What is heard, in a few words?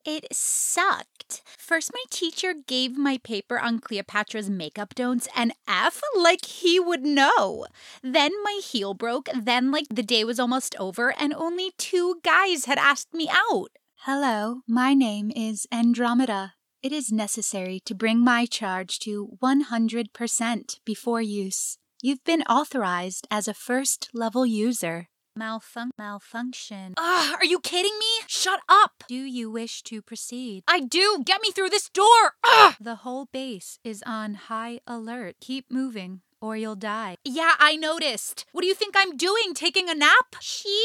Animation Demo Reel